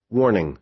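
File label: "warning", American English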